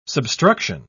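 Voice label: "substruction", British English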